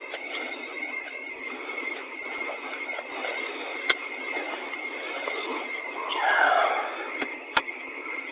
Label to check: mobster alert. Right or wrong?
Wrong